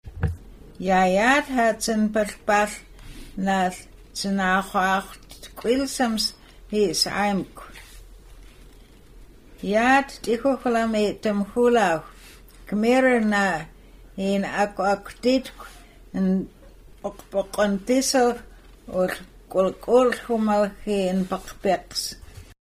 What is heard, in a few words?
Nsyilxcen; Sundance Drive